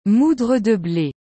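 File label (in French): "moudre de ble", French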